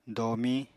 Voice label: "domiñ", Apatani